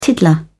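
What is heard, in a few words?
"tiddler", British English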